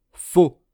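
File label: "faux", French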